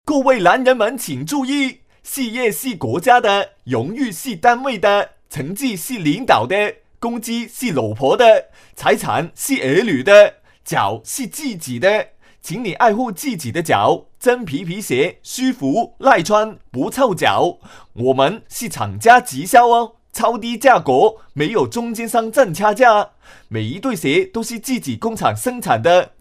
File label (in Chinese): 男 广普, 粤男